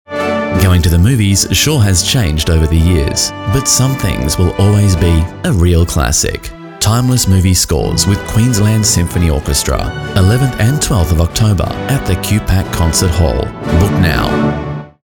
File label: voiceover